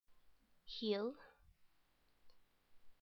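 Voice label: "heel", English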